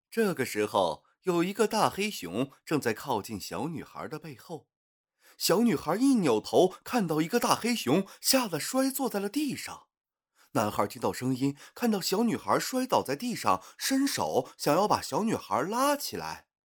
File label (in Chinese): 旁白